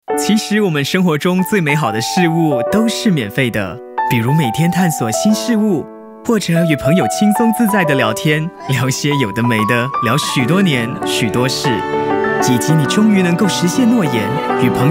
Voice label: Mandarin - Guy Next Door, Conversational, Relatable